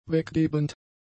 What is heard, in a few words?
"weggebend", German; adjectives